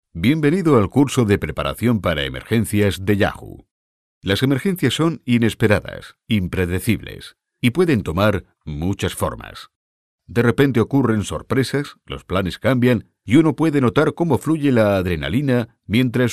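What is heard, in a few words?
male, Spanish, male, elearning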